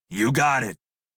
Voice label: Crew Accept Male en; Dialog; Warframe; Railjack Crew; Red Veil

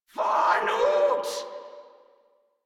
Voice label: Attack Grineer Female; Warframe

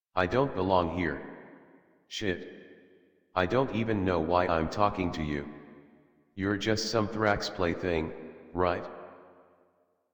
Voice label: Adult, en, Dialog; Theatre; Warframe; Duviri Paradox Quest

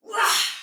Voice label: Attack Soldier Female, Battle Barks; Dialog; Sinecure

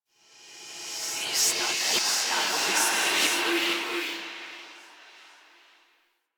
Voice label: Whisper; Warframe; Stalker Acolyte

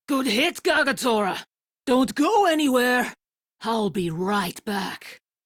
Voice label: Crew Heal Self, Female; Dialog; en; Warframe; Railjack Crew; Steel Meridian

